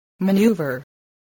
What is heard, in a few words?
"maneuver", spelling voice